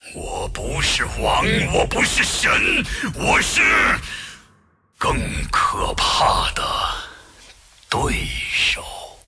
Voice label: move, zh